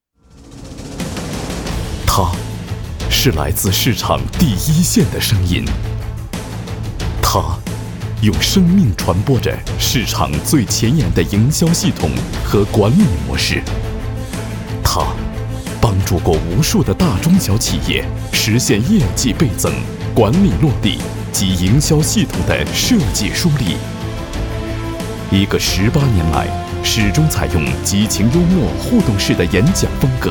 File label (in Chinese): 男 专题 人物专题 大气